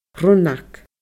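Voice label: "rionnag", Scottish Gaelic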